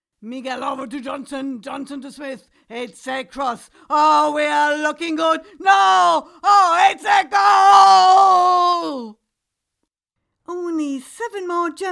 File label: English Videogame